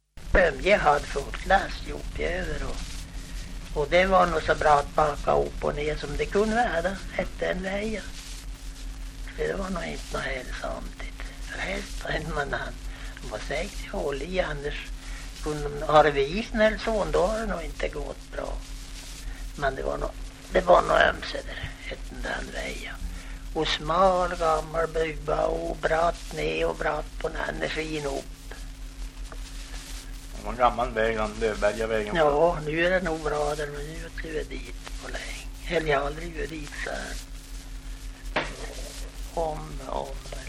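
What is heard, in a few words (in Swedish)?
Häggenås Jämtland, dialekter; Folkminnen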